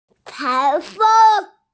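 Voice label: "tai2feng1", Mandarin Chinese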